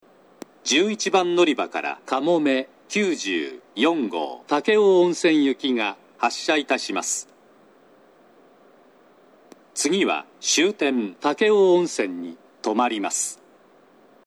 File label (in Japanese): 嬉野温泉, 11番のりば発車放送; かもめ94号 武雄温泉行き